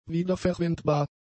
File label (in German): "wiederverwendbar", German; adjectives